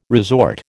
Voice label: "resort", American